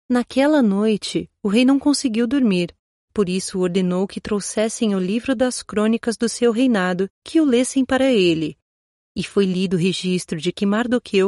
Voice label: portuguese brazilian audiobooks